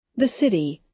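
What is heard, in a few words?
"city", American English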